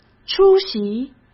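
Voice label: "出席", Mandarin Chinese; chinese flashcards; hsk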